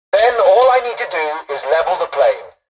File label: tutorial dialogue, english; War Thunder